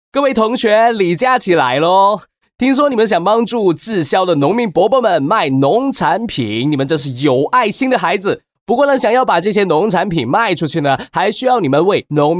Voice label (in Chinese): 模仿; 李佳琦